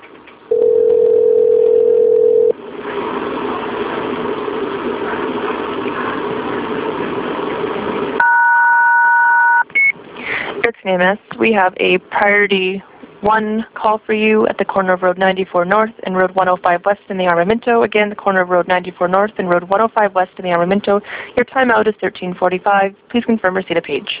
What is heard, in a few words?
Mock Dispatch MVC